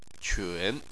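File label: "qun", Chinese, tone 3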